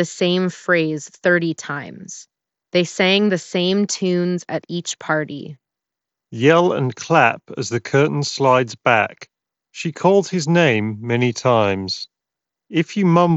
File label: HyperX Cloud III S Wireless ideal